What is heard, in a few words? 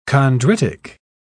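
"chondritic", British English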